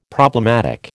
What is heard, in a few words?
"problematic", American